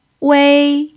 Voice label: "wei1", chinese

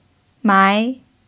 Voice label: "mai2", chinese

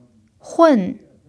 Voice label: "hun4", chinese

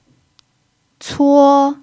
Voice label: "cuo1", chinese

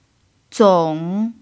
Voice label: "zong3", Chinese

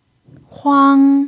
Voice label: "huang1", chinese

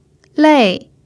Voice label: "lei4", chinese

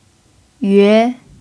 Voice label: "yue2", Chinese

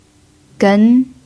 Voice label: "gen2", chinese

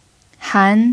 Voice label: "han2", chinese

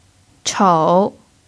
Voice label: "chou3", chinese

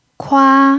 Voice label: "kua1", chinese

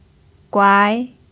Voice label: "guai2", chinese

